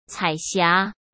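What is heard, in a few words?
"彩霞", Mandarin Chinese; chinese flashcards; hsk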